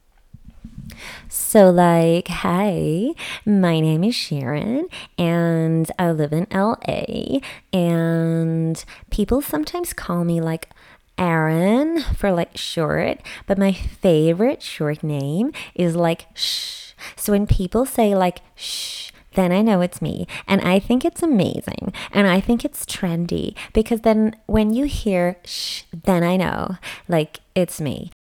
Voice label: LA ACCENT